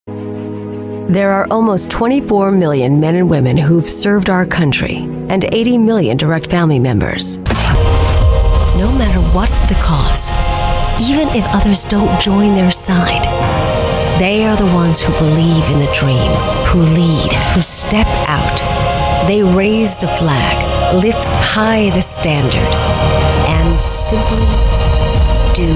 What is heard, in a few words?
Narration